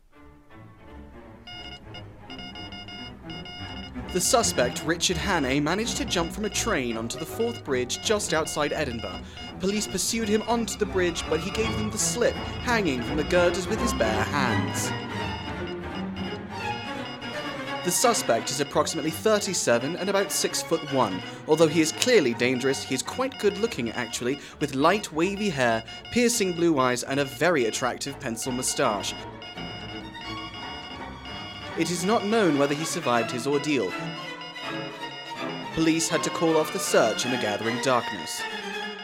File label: Radio Announcer and Telegraph